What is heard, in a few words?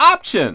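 announcer, Japanese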